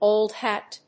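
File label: "old hat", English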